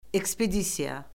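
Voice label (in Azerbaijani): "ekspedisiya", Azerbaijani; Orfoqrafiya Lüğəti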